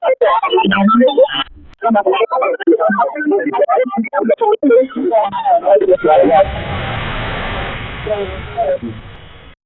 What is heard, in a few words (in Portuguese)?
vozes distorcidas telefon; distorted voices